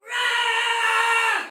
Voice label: Battle Cry Soldier Female; Dialog; Sinecure